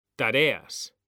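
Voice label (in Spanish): "tareas", Spanish, male; mekanta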